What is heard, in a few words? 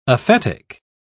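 "aphetic", British English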